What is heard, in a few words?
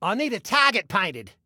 Target Need, Male; Male 02